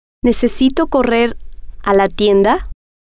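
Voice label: necesito Correr Tienda, Spanish, female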